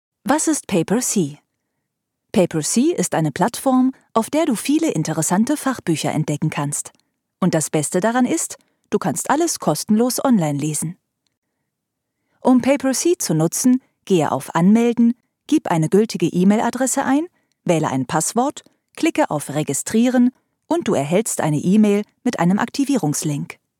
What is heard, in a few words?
tutorial german